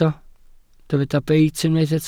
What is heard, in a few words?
"beit", Welsh